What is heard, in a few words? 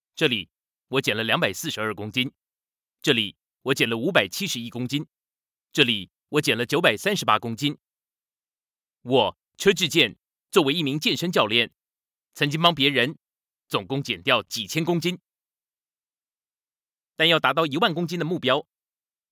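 chinese mandarin male character; voice demos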